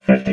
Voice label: "fifty", robot vox